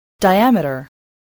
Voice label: "diameter", english spelling

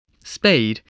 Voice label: "spade", English voice

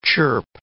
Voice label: "chirp", English word

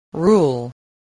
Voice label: "rule", English spelling

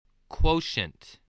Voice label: "quotient", english

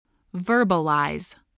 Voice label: "verbalize", English

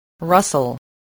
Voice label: "rustle", voice spelling

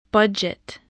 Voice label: "budget", spelling

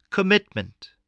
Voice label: "commitment", voice

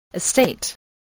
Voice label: "estate", voice, english

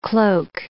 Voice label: "cloak", English

